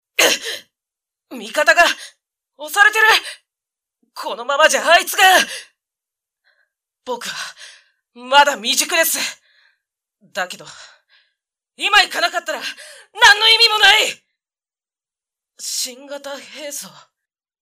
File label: SF boy